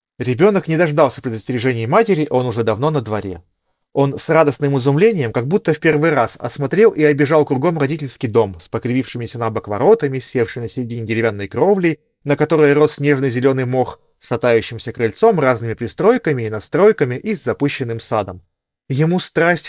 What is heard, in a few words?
Mic; sony wh-1000xm6